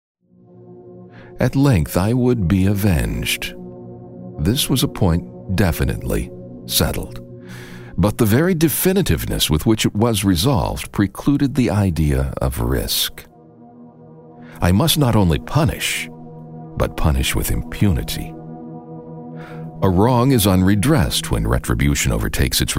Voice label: Audiobook